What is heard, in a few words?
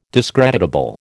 "discreditable", American